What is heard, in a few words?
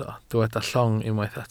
"llong", welsh